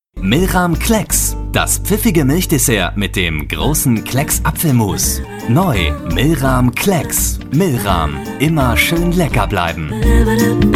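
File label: M, German, male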